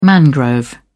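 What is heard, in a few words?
"mangrove", British English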